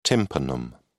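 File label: "tympanum", English, British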